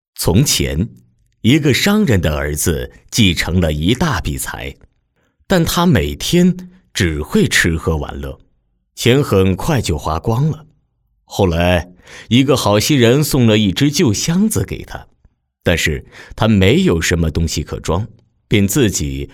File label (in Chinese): 儿童